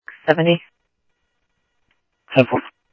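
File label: Sheriff East County, scanner audio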